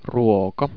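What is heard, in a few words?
"ruoka", Finnish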